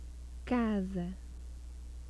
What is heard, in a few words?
"kaza", Portuguese; UCLA Phonetics Lab Archive